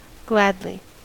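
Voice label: "gladly", US English; pronunciations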